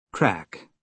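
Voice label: "craic", British English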